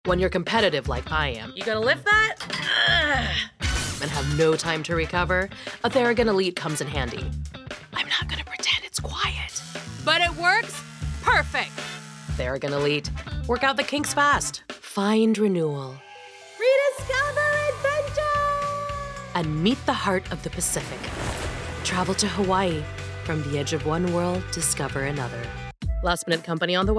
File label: Commercial